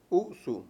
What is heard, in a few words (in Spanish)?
"eso", Mayo; Palabras con distintas funciones